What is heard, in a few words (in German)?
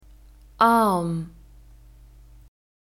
"Arm", German; masculine